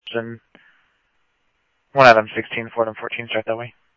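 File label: Mesa Simulcast Police Department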